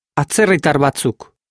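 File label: "atzerritar batzuk", Basque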